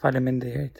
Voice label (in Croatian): albanski; POP UP Zagreb